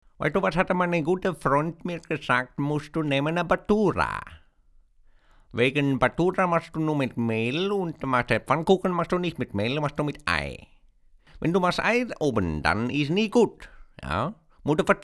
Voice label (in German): indisch